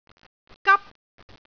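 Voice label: "gap1", Cantonese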